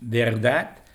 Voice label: "verdad", es; verdad [vɛɾˈdat]